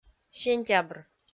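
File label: "сентябр", Komi, female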